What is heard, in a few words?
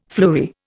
"fleury", Indian